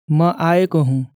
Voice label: nepalais je viens; nepalais nepali

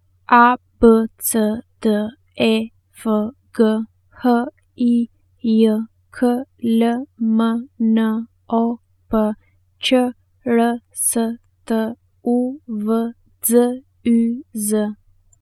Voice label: alphabet albanais; langue albanaise

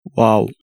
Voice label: wolof oui; Senegal; langue wolof

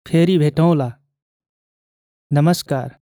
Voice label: nepalais au revoir formel; nepalais nepali

